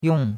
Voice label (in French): "yong4", chinois; Cours-de-Chinois